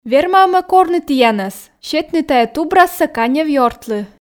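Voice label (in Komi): верма-ӧ ме корны тіянӧс сетны тайӧ тубрассӧ канев ёртлы, Komi, female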